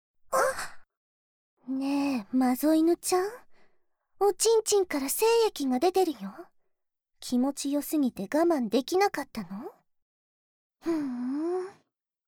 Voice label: お漏らし時用ボイス, ASMR

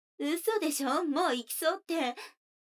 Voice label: 女の子 台詞, ASMR

